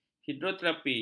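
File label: "hidroterapi", Malay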